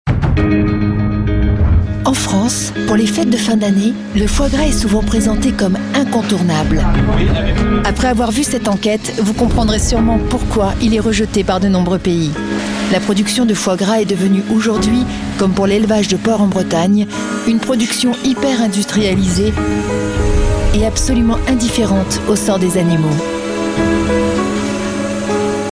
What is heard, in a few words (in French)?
reportage émotion journalistique; Foie Gras